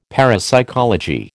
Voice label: "parapsychology", American